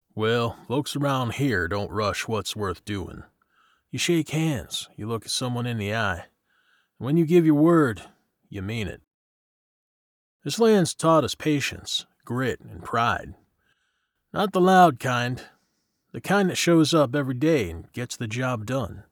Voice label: English Southern Texas